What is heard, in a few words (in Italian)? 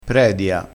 "predia", crespinese